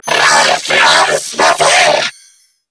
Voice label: Player Spotted Grineer Female; en; New War; Narmer Grineer